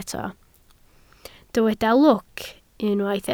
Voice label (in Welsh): "lwc", Welsh